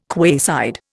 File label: "quayside", Indian